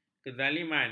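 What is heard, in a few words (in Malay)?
"kezaliman", Malay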